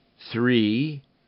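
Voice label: "three", English; Rhyme Test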